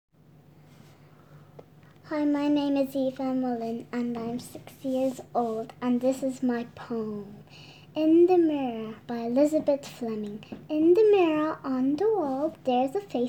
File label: Reciting; IN THE MIRROR by Elizabeth Fleming